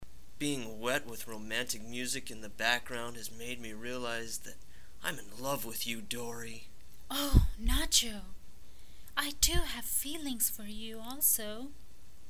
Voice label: Cheesy Read; DORITOS